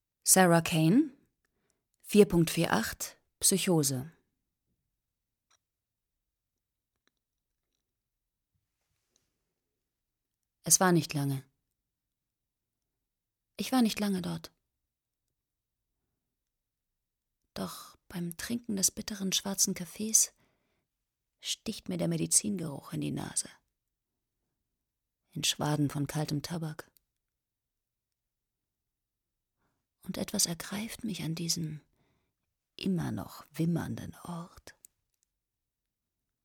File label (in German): Sprecher Demo Drama; Sarah Kane; 4.48 Psychose